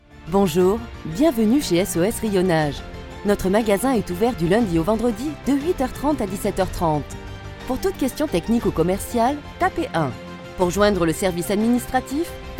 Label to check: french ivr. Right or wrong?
Right